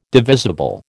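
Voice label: "divisible", American English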